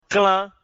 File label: "klan", mina